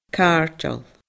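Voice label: "cairdeil", Scottish Gaelic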